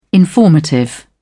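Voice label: "informative", British English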